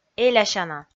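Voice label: "элясяна", Komi, female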